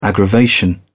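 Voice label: "aggravation", British English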